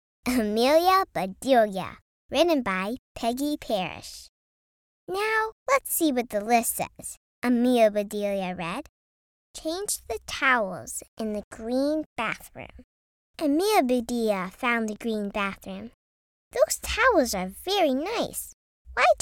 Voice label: Audiobook; Amelia Bedelia